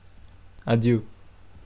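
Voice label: "adieu", Portuguese, male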